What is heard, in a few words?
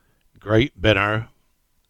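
"Great Binner", English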